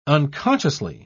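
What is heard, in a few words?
"unconsciously", British English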